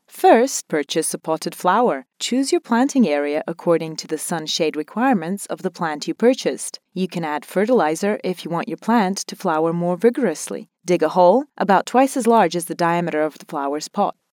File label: e-learning, english USA